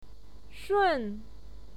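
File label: "shun", Mandarin Chinese, fourth tone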